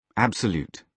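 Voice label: "absolute", British English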